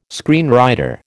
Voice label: "screenwriter", American